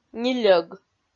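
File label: "нильӧг", Komi, female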